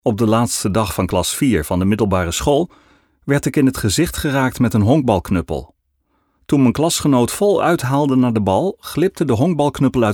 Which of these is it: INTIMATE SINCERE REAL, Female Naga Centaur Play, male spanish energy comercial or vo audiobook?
vo audiobook